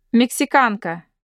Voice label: Mexican female